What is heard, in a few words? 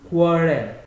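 "quarrel", Nigerian Pidgin, male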